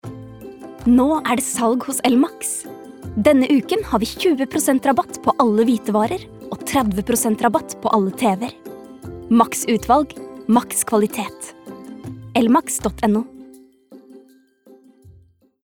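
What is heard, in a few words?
Norwegian reklame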